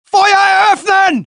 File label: German; mohaa; dialogue